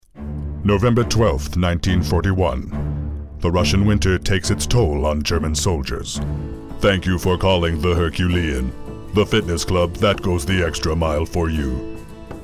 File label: Narration